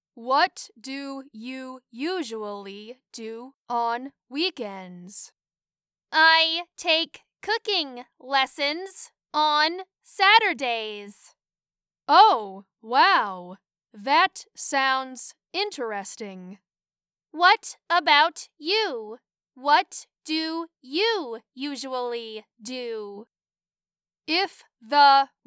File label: Dialogue Semi Natural